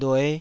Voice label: "lue5", Taiwanese syllable